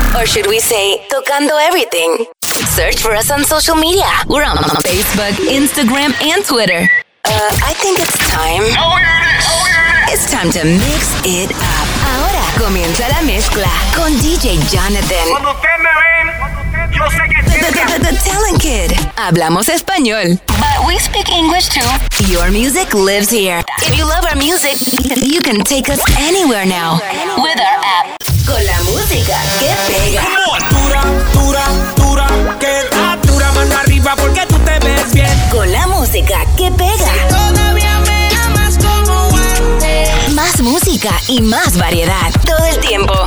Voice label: radio women spanglish, radio imaging

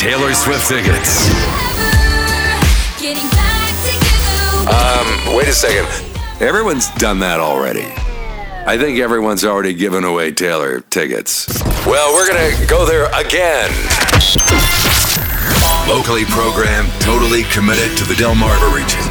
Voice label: radio imaging men classic country

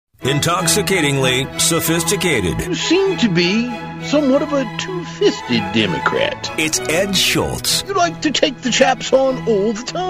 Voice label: radio male news; radio imaging

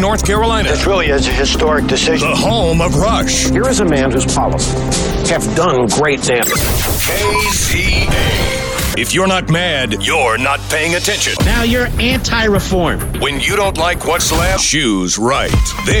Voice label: radio imaging men news talk

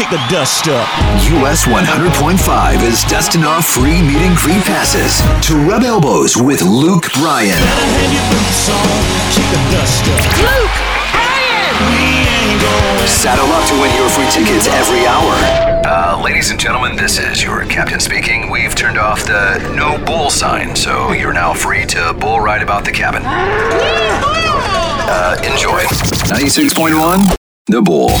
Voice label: radio hot country, radio imaging

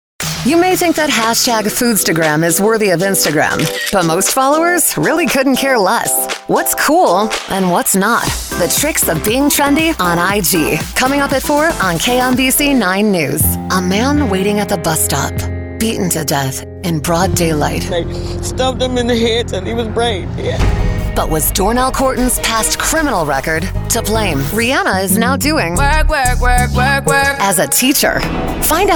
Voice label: tv affilliate female; tv affiliate

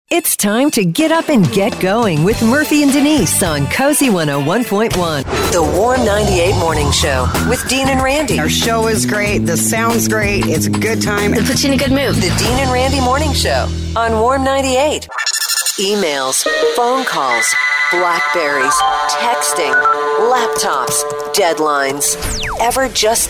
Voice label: radio ac, radio imaging

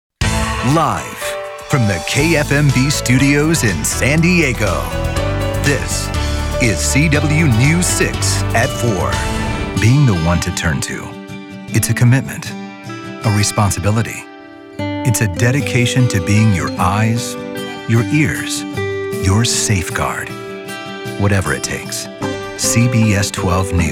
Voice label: tv affiliate male

